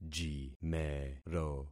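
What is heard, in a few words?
"jimero", concatenated syllables; Affixing experiment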